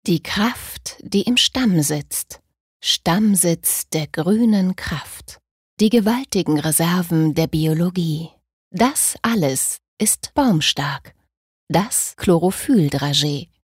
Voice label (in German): Werbung Sanft